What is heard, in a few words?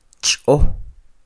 "ch'ö", Quiché; ratón; mouse; INALI; UTexas; CHQETA